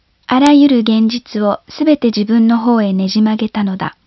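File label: Japanese Female, Synth; CHATR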